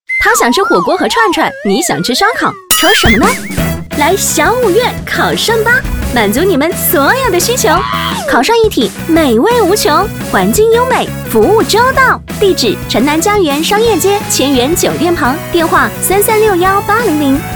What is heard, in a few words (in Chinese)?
女 电台片花; 小五月烤涮